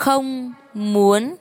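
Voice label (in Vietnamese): "Không muốn", tiếng việt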